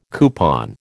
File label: "coupon", American